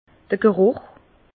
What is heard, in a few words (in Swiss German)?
"geruch", Bas Rhin; vin; l’odorat